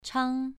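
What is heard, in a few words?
"chang" Mandarin, second tone, pronunciation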